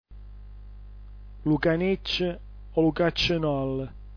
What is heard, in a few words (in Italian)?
"lucanicc", dialetto giulianova